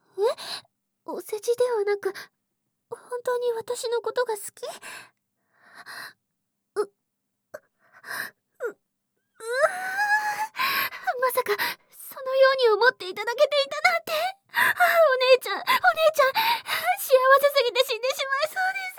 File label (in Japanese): ハイテンションお姉ちゃん, ASMR